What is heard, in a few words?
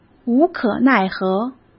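"无可奈何", Mandarin Chinese; hsk; chinese-flashcards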